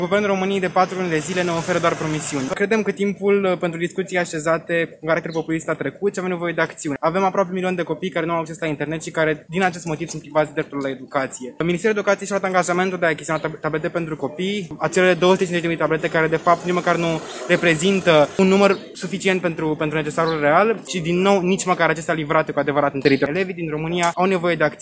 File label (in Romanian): Voce elev protest; Declic